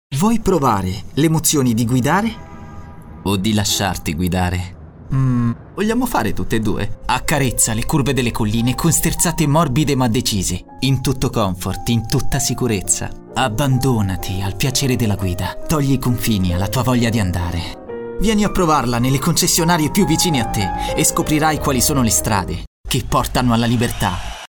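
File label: Italian male voice over, sales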